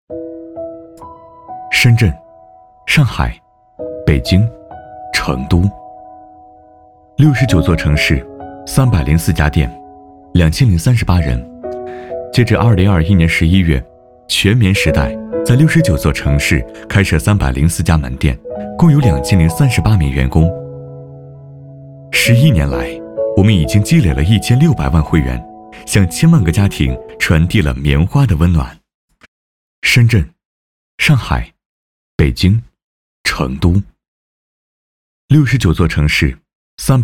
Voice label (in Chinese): B男 专题 温柔